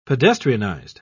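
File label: "pedestrianized", English, British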